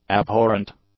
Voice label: "abhorrent", english; adjectives